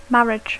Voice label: "marriage", English